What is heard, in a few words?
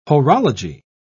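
"horology", British English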